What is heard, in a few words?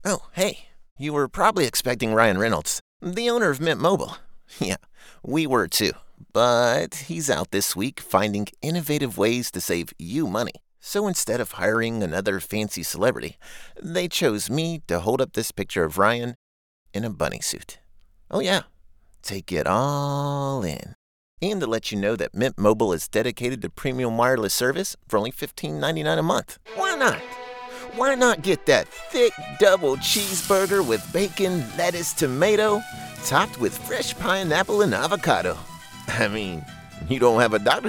Commercial